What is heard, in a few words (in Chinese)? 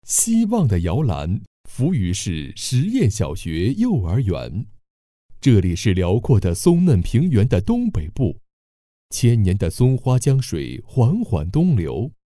男 宣传片 幼儿园; 扶余市